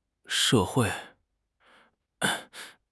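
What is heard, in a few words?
"she4 hui4", Chinese; HSK4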